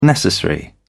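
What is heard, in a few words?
"necessary", British English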